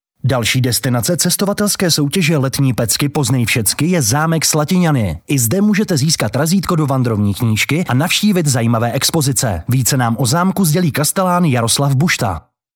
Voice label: male czech voice over narrative